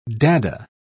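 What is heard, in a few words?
"dada", British English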